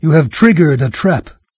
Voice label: trap, VOICES en-US NARRATOR; Fate Undiscovered Realms